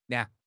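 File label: "liang4", Mandarin Chinese; HSK3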